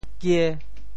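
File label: "kie5", Teochew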